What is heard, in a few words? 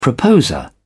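"proposer", British English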